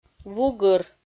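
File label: "вугыр", Komi, female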